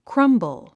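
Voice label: "crumble", English; 発音 krʌ́mbl クランブル; 意味 （ぼろぼろに）崩す 崩れる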